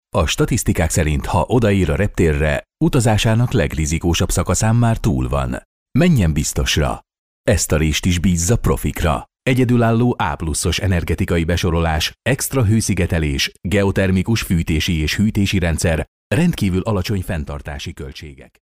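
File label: Hungarian, male, narration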